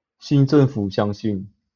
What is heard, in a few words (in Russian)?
Голоса, Тайваньский